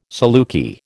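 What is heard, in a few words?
"saluki", American English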